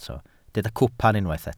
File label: "cwpan", Welsh